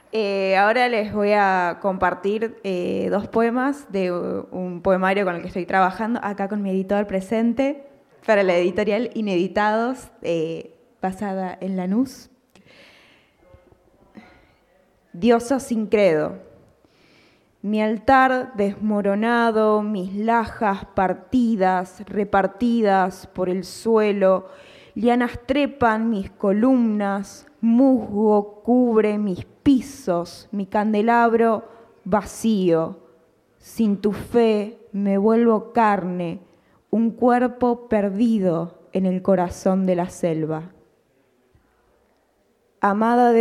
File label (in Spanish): microfono abierto